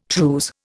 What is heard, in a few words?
"trews", Indian